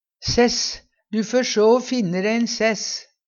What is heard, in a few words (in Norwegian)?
"sess", Numedalsmål; Du fær sjå o finne de ein sess.